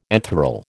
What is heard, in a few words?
"enthral", American